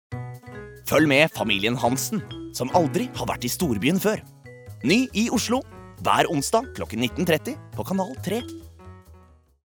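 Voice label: Norwegian promo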